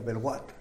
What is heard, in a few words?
"belwat" ratsnake; animals